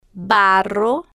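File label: "barro", Spanish, female, slow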